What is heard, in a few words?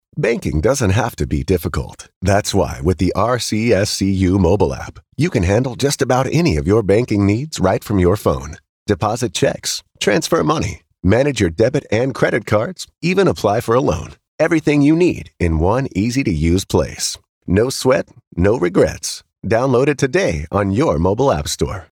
Professional